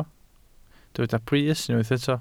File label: "brys", Welsh